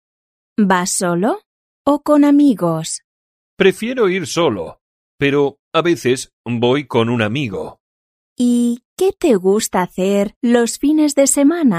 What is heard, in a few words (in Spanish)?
dialogo principiante tiempo libre